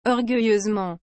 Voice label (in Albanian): "orgueilleusement", frengjisht